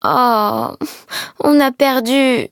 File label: defeat, fr; cookie run